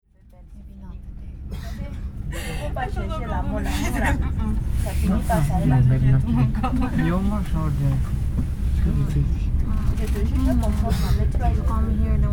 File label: Conversation Train; voix ville